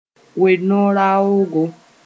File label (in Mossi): "we-no-raoogo", moore